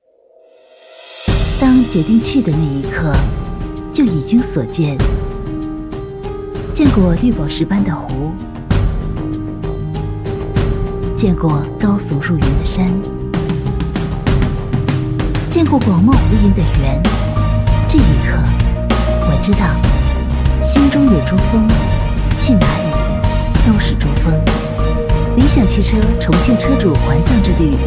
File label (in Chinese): 女 TVC; 理想汽车